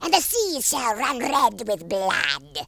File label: yes sir, speech, Horror